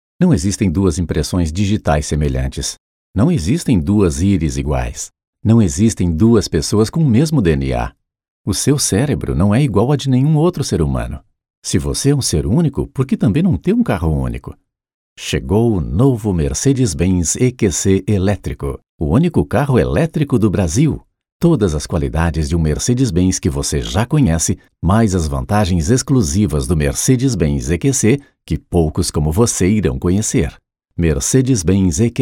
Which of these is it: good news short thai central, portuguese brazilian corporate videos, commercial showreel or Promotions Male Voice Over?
portuguese brazilian corporate videos